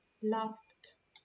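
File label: "laughed", English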